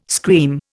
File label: "scream", Indian